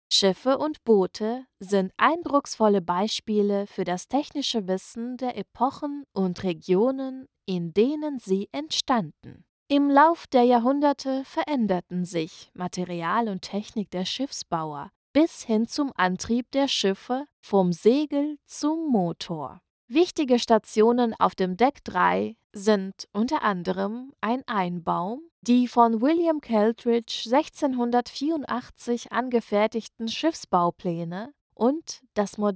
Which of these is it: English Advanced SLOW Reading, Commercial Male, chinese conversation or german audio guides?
german audio guides